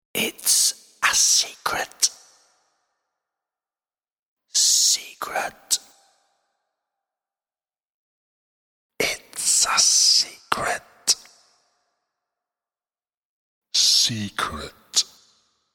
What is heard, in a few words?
The word ‘Secret’ and ‘It's A Secret’ whispered in male voice x 4; Whispering